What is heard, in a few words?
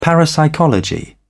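"parapsychology", British English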